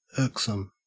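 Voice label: "irksome", Australian English; pronunciations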